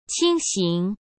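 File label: "轻型", Mandarin Chinese; chinese flashcards; hsk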